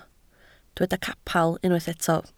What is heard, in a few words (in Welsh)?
"capel" Welsh